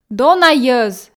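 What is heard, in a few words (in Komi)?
"дона йӧз", Komi, female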